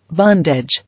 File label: "Bondage", English